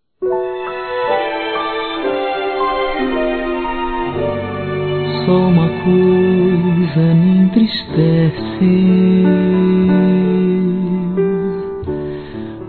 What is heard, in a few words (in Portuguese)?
Saudades De Ex Masculino, Telemensagens